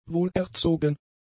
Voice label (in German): "wohlerzogen", German; adjectives